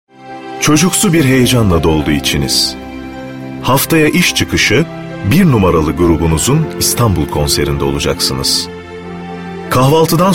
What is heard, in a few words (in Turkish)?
reklam; uniq